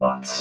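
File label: "bots", robot voice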